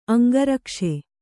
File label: "ಅಂಗರಕ್ಷೆ", kannada